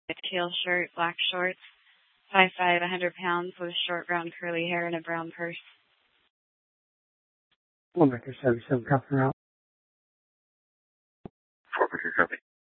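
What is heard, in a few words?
Police Department Dispatch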